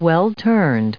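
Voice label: "well-turned", US English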